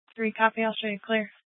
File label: Gilbert Police Dispatch; 1 Dispatch